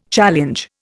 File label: "challenge", Indian